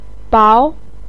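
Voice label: "bao2", Mandarin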